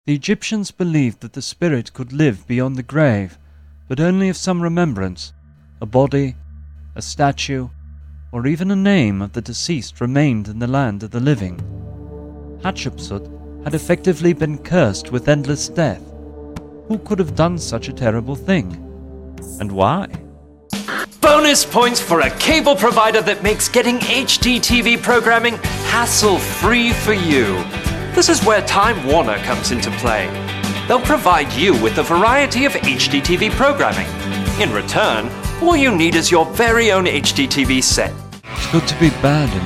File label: brit narr, voiceover